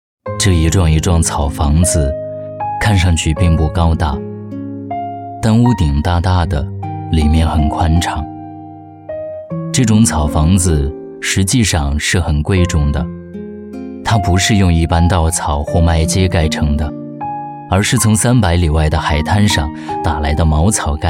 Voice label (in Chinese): 抒情旁白; 草房子